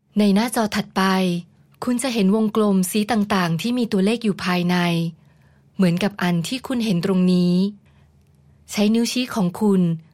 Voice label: 泰语女声, 专题解说【沉稳自然】

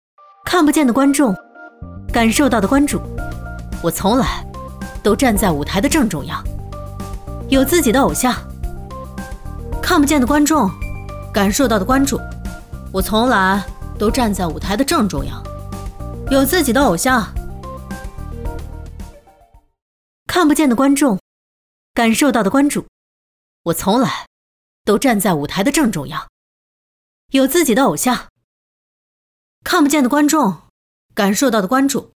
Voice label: 专业女声11, 电影解说【搞笑】; 中文

